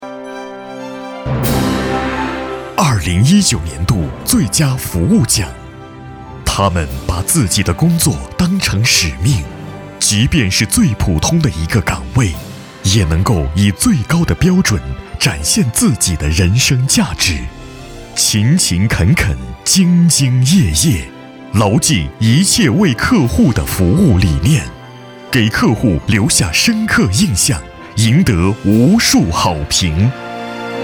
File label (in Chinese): 颁奖词 高端大气